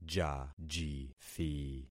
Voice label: "jajithi", concat syllables; Affixing experiment

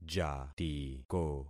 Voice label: "jatiko", concat syllables; Affixing experiment

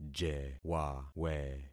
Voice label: "jewawe", concat syllables; Affixing experiment

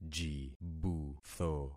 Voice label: "jibutho", concat syllables; Affixing experiment

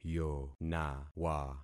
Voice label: "yonawa", concat syllables; Affixing experiment

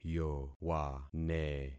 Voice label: "yowane", concat syllables; Affixing experiment

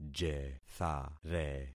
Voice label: "jethare", concat syllables; Affixing experiment

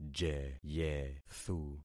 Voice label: "jeyethu", concat syllables; Affixing experiment

